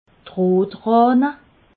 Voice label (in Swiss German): "rotrahne", alsace